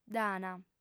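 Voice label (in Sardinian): "dd'ant", female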